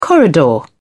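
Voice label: "corridor", British English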